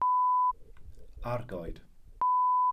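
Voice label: "argoed", Welsh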